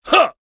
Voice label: Voices Vo Male Fight Attack

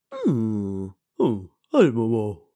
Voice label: Sad

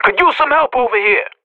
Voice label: Professional Radio bot under fire

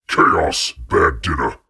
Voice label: Voices Phrase Hi

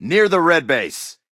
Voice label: Voices Character Male

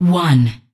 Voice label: Voices Announcer Status

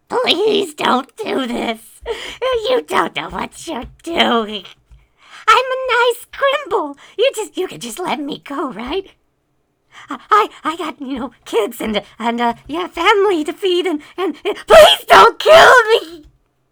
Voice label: Male Begging

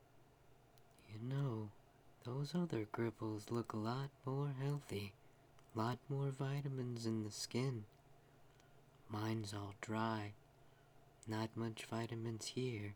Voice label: Voices Female Beggings

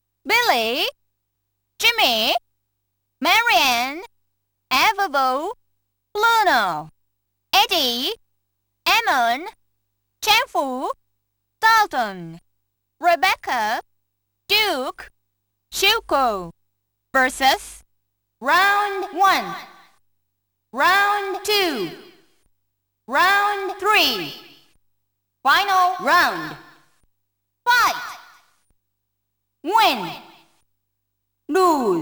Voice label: Narrator Voice; double dragon original soundtrack

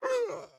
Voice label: Enemy General Male Death; Pulling No Punches

